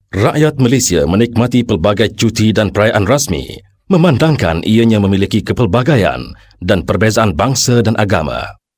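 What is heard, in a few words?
malay male voice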